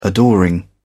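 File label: "adoring", British English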